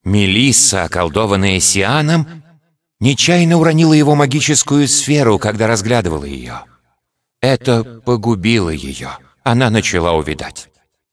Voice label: cinematic voice, ru; lineage2